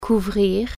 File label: cover, french